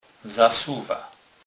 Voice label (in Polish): "zasuwa", kurpie